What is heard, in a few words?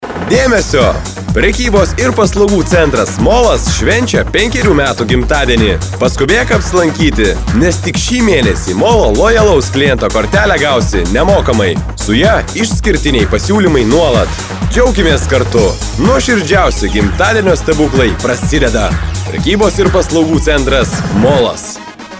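lithuanian male commercial; voice demos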